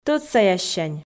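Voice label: "тӧдсаяссянь", Komi, female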